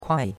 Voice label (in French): "kuai4", chinois; Cours-de-Chinois